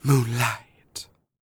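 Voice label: female spoken, R&B Dance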